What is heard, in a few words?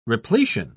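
"repletion", British English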